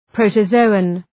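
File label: "protozoan", English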